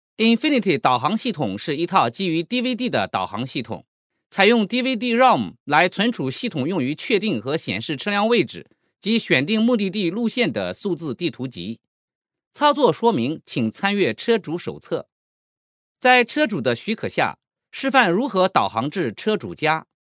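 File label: Mandarin Chinese, China, male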